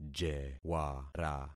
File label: "jewara", concat syllables; Affixing experiment